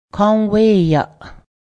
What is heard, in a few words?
black ash, Cayuga